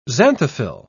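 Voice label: "xanthophyll", English, British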